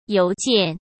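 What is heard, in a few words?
"邮件", Mandarin Chinese; hsk; chinese flashcards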